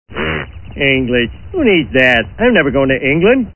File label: Sim english